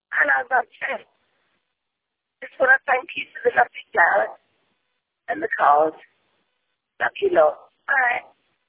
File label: voicemail